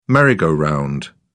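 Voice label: "merry go round", British English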